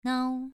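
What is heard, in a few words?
"nao", tone 2, pronunciation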